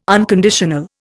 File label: "unconditional", Indian